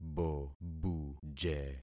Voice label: "bobuje", concat syllables; Affixing experiment

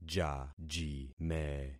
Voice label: "jajime", concat syllables; Affixing experiment

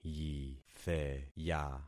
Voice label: "yitheya", concat syllables; Affixing experiment

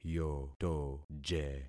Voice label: "yotoje", concat syllables; Affixing experiment

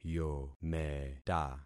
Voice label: "yometa", concat syllables; Affixing experiment

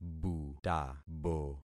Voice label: "butabo", concat syllables; Affixing experiment

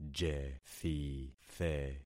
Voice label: "jethithe", concat syllables; Affixing experiment

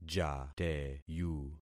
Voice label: "jateyu", concat syllables; Affixing experiment

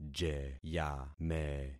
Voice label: "jeyame", concat syllables; Affixing experiment

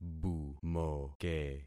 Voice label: "bumoke", concat syllables; Affixing experiment

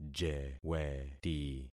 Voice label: "jeweti", concat syllables; Affixing experiment

